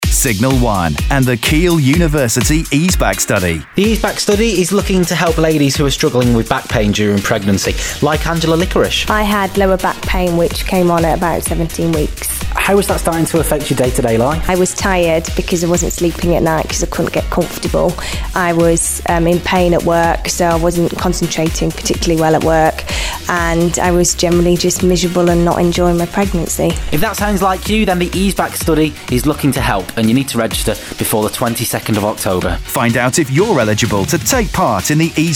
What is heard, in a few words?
patient infomercial